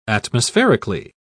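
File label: "atmospherically", English, uk British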